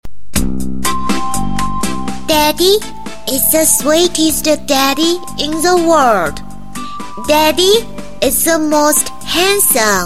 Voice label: 女 英语 童声; 国语女声配音; 双语配音

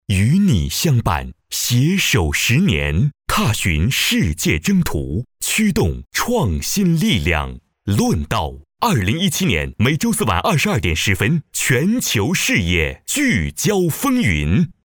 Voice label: 男, 片花, 干声; 贵州卫视; 论道

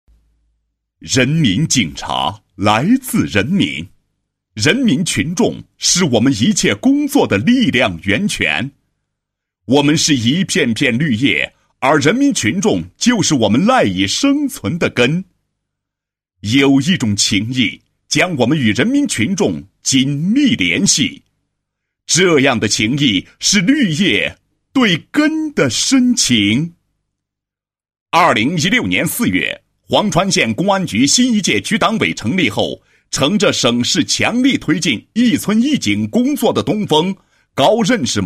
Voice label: 男 工作纪实, 一村一警工作宣传; 绿叶对根的情意

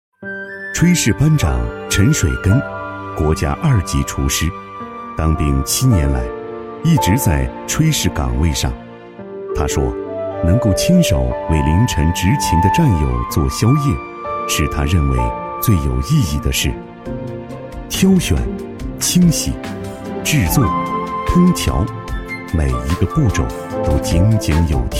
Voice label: 男 记录片, 舌尖风格 农场记录

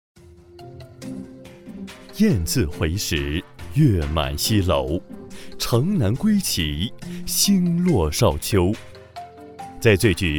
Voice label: 男 纪录片 舌尖感觉

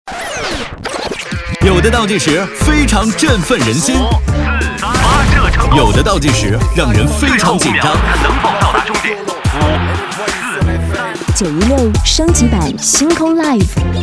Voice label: 电台宣传, 阳光

